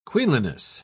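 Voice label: "queenliness", British English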